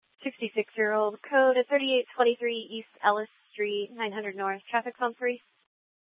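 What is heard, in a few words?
police dispatch, scanner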